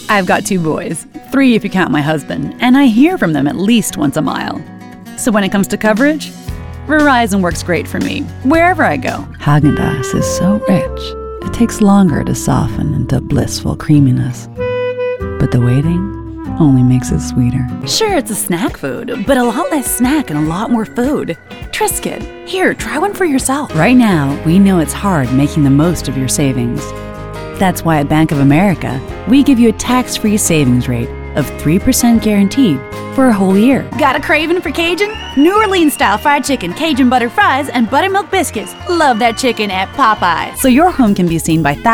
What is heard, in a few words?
Commercial